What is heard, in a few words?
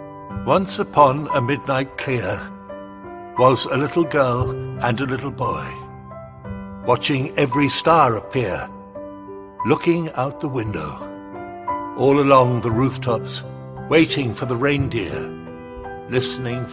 Christmas Cartoon, British, natural, trustworthy Male Voice